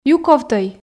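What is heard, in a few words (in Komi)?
"юковтӧй", Komi, female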